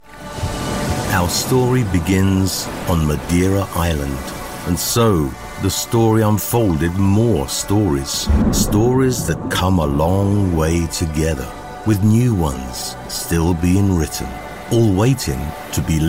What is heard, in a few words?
english british commercial